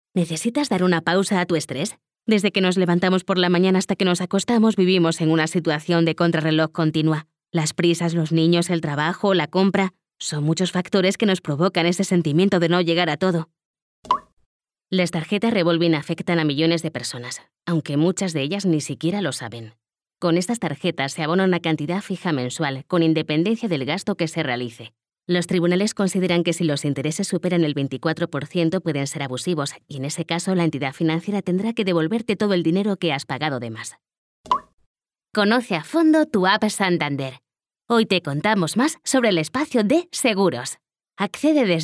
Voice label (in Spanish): locutora; Banco de voces